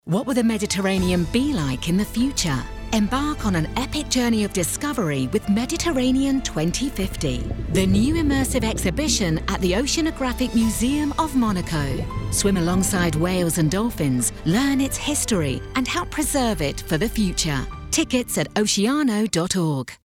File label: radio ad; oceano